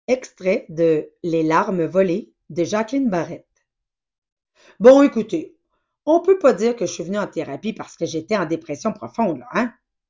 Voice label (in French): Demo voix quebecois